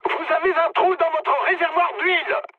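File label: French damaged oil mood high; War Thunder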